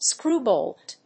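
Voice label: "screw bolt", English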